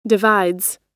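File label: "divides", American English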